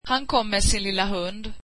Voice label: swedish he came with his small dog